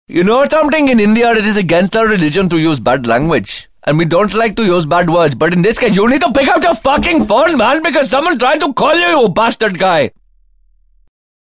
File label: Angry Indian